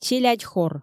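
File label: "челядь хор" Komi, female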